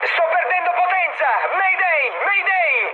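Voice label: Italian damaged engine mood high, speech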